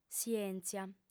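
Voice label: "sièntzia", Sardinian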